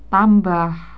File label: "tambah", indonesian